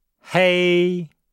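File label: "hei", Chinese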